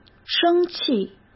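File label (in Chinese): "生气", Mandarin Chinese; hsk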